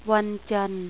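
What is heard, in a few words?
"Monday", male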